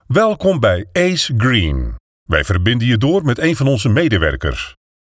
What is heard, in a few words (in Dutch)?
IVR Telefoon bericht